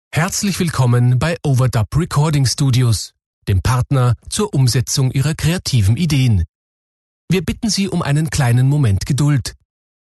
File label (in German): Telefonansage